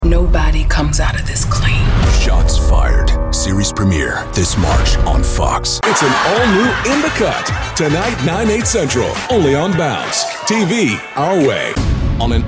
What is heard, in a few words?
promo male